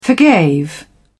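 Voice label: "forgave", British English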